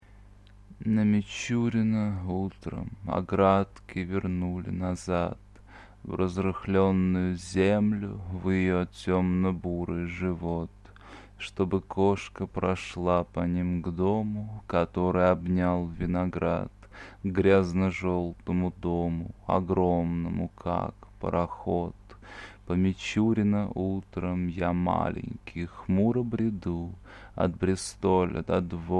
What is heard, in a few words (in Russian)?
читает стихотворение; На Мичурина утром...